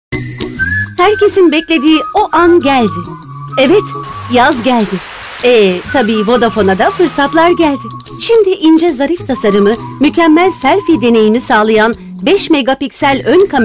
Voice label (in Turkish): reklam; vodafone